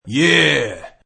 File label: Yeah, Male thug saying; Attitude